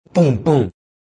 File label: A man says boom boom.; Talking; Voice